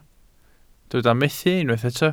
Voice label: "methu", Welsh